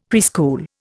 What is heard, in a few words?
"preschool", Indian; Dictionary